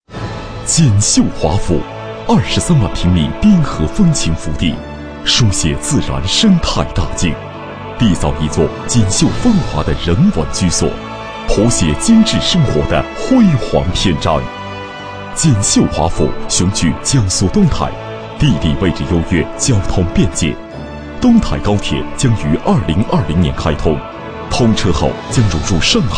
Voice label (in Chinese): 男 专题 地产专题 大气